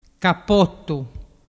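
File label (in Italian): "capottu", dialect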